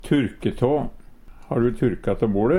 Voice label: "turke tå", Numedalsmål

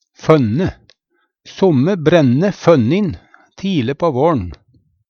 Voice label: "fønne", Numedalsmål; Somme brænne fønnin tile på vårn.

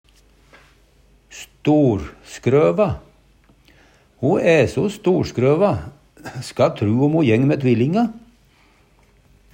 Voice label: storskrøva, Numedalsmål; Adjektiv

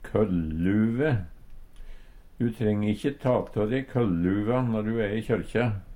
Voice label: "køll-luve", Numedalsmål; Substantiv hokjønn; Personleg utstyr, klede, sko

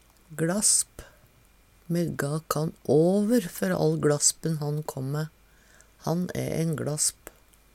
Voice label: "gLasp", Numedalsmål

